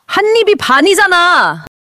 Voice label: anger

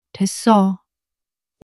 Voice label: sadness

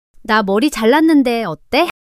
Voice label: shy

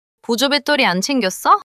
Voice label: neutral

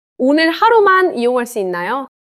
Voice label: neutral

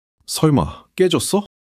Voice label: fluster

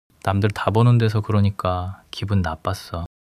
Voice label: sadness

